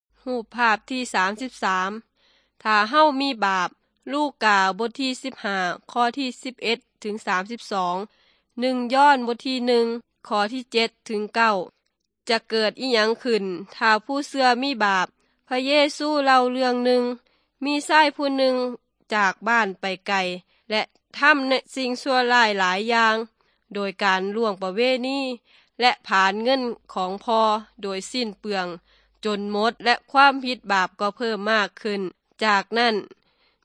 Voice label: good news thai northeastern

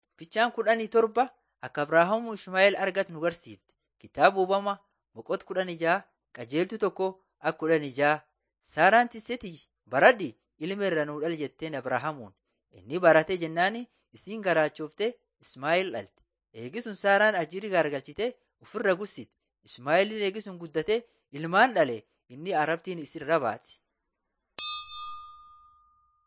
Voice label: borana sakuye; laali chaqasi and jiradin 1 waaqan yayabadi beginning with god

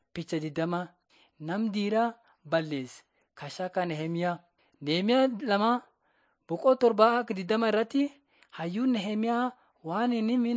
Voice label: borana sakuye; laali chaqasi jiradin 5 jilalacha waaqat on trial for god

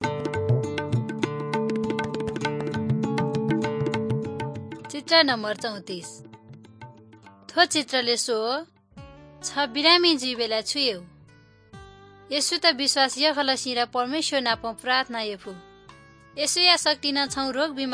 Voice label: good news newari balami

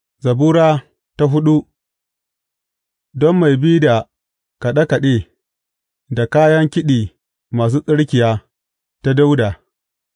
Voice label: hausa bible drama, psalms; hausa bsn nt drama

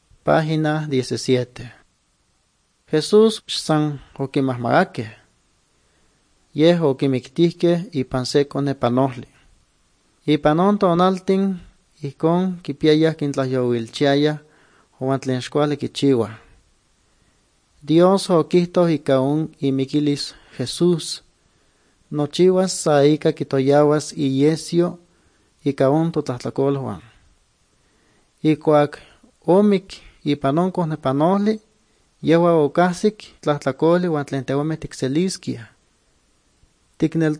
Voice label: good news, nahuatl guerrero chilapa

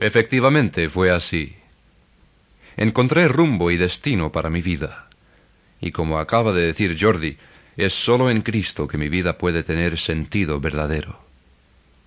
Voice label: words of life spanish castellano

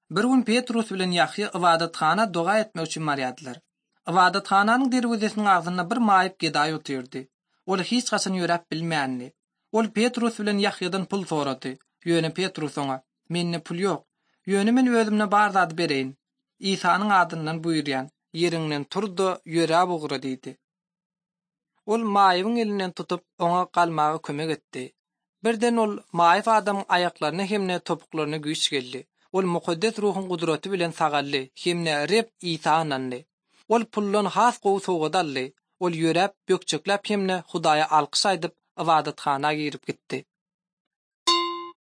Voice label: turkmen, bible translation drama; acts of the holy spirit; institute for bible translation

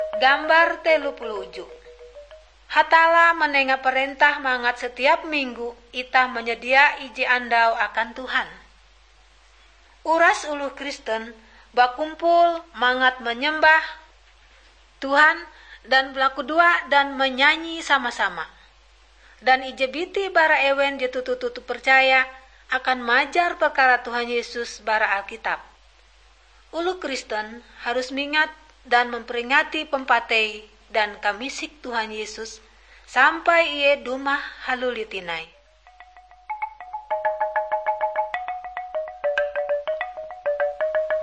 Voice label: kabar bahalap good news ngaju, drama

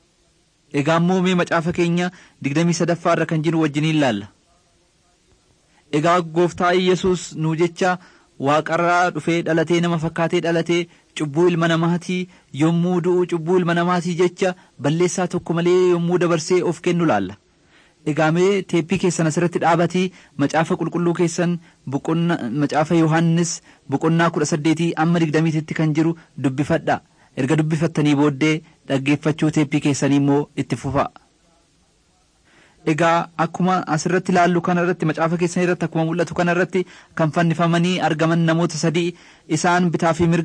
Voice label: oromo wellega; mighty men of god

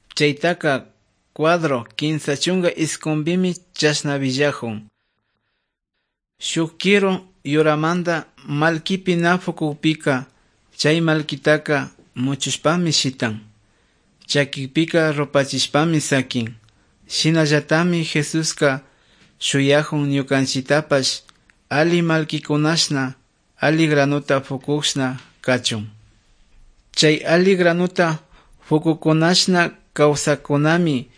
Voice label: good news quichua otovalo

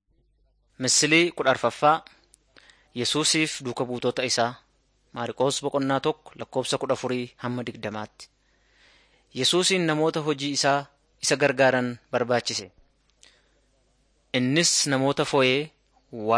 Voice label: oromo wellega; jesus teacher and healer short

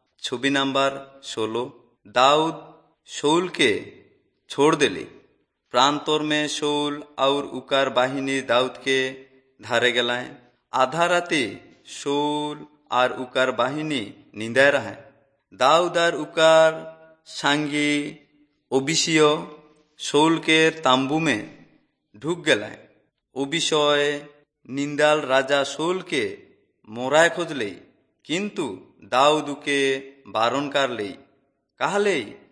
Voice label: servants of god urao, kurukh drama

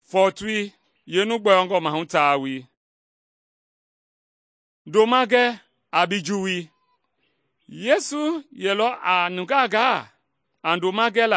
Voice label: mende bsl nt drama; good news mende kpa

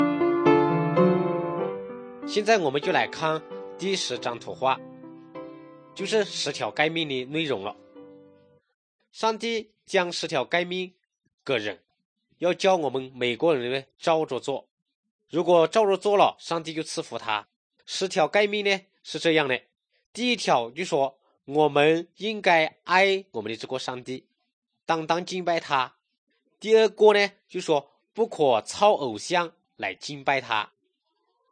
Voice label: good news shan, drama

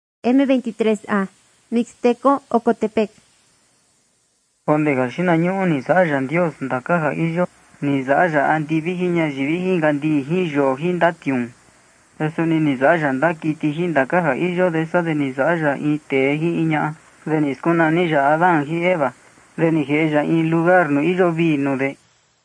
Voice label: mixtec diagnostic spanish mexico

